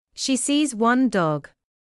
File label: "She sees one dog", English